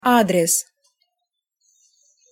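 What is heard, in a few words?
"adres", Russian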